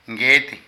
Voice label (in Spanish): Gallina, Zapoteco de Petapa; Animales; Vocabulary